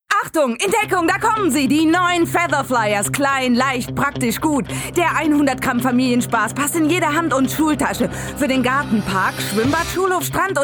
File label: female german sport comercial